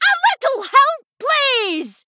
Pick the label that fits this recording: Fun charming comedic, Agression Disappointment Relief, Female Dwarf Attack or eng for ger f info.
Female Dwarf Attack